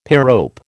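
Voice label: "pyrope", American English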